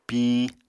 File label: "piñ", Apatani